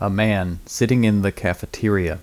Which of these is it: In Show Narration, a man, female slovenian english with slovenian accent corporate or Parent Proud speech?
a man